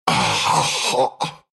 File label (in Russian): happy